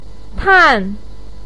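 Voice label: "tan4", Mandarin Chinese, pinyin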